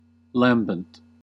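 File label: "lambent", American English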